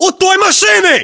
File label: landmark near car, battlechatter ru; localized english